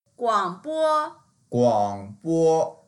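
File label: "guang3bo1", Mandarin Chinese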